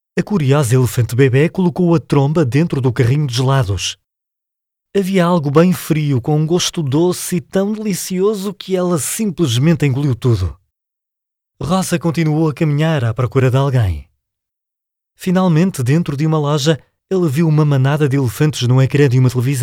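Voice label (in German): Portuguese, Schauspiel Neutral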